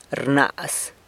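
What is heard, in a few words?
"rnaz", grabs, San Lucas Quiaviní Zapotec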